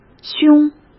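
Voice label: "凶", Mandarin Chinese; hsk; chinese flashcards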